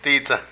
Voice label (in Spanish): Cuento, leyenda, Zapoteco de Petapa; Habla; Vocabulary